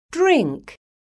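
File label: "DRINK", English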